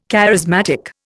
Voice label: "charismatic", Indian